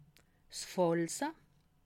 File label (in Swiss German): "falze", alsace